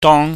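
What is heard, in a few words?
"dong", Cantonese, high falling tone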